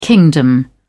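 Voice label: "kingdom", British English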